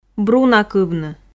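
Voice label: "брунакывны", Komi, female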